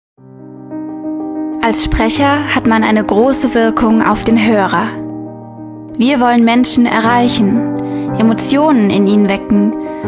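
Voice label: German, female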